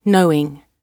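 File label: "knowing", British English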